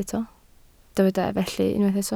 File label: "felly", Welsh